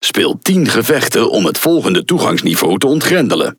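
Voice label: NL Speech Access Level Unlock, Dutch; World Of Warships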